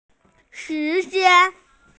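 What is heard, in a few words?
"time", Mandarin; shi2jian1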